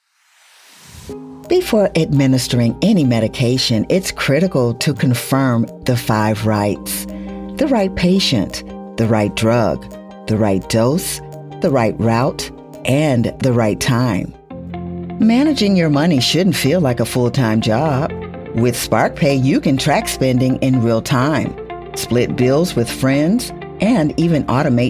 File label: Narration